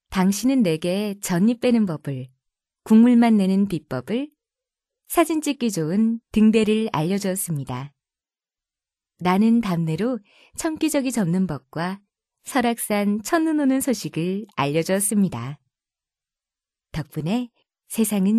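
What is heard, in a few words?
Korean female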